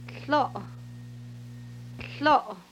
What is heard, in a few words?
laugh, hupa